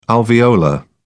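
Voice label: "alveolar", British English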